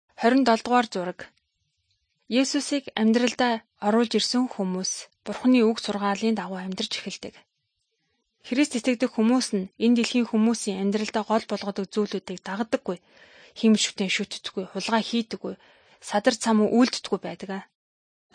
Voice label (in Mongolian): good news mongolian